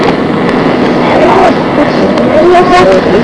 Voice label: whisper reverse